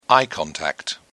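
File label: "eye contact", British English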